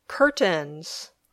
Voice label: "curtains", English